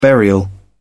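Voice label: "burial", British English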